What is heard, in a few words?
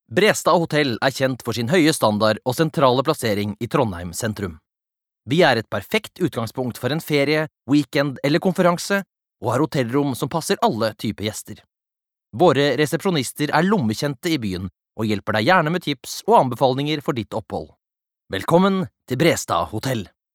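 Norwegian informative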